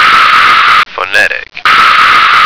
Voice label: "phonetic", American

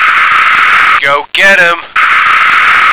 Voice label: "go get em", American

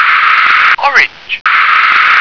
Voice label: "orange", English

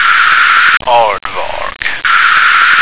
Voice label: "aardvark", American

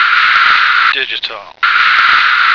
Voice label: "digital", American

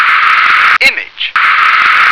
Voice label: "image", English

